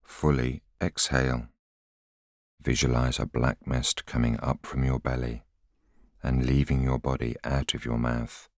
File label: voiceover